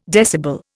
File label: "decibel", Indian